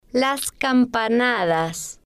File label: "las Campanadas", spanish